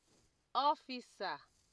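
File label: "officer", naija, female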